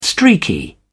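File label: "streaky", English, British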